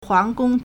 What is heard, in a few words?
"huang2 gong1", Chinese